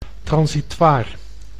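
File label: "transitoir", Dutch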